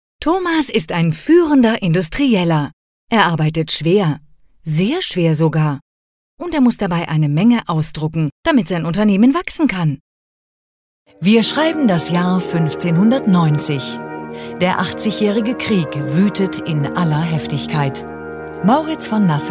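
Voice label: narration German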